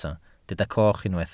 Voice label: "coch", Welsh